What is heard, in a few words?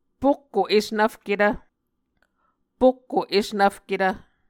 "pokko esnvfketv", muscogee